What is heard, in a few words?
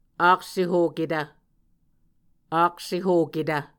"aksehoketv", muscogee; Mvskoke Words with Voicing Consonants; A Dictionary of Creek/Muskogee; SIL International